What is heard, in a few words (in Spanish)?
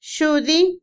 Otomí, mujer; mañana; INALI